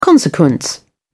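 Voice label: "consequence", British English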